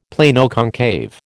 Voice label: "Planoconcave", American

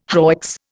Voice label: "hoicks", Indian

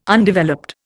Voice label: "Undeveloped", Indian

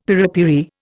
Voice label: "piripiri", Indian; Dictionary

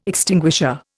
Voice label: "extinguisher", Indian

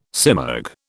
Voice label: "simurg", British

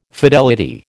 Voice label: "fidelity", American

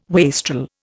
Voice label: "wastrel", Indian

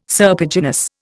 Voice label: "serpiginous", Indian

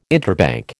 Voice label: "interbank", American

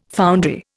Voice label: "foundry", Indian

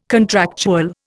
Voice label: "contractual", Indian

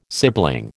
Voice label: "sibling", American

